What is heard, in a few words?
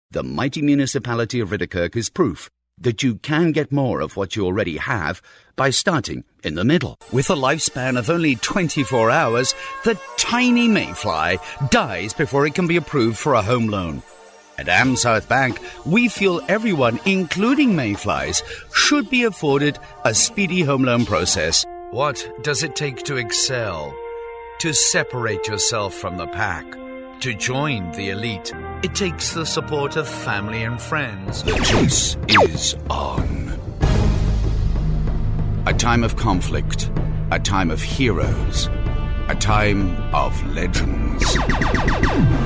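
Commercial Male, Scottish, Strong, Rich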